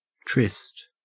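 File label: "tryst", Australian English; pronunciations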